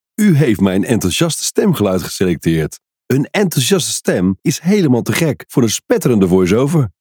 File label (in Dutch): enthousiast